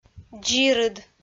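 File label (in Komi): "дзирыд", Komi, female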